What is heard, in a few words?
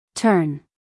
"turn", us female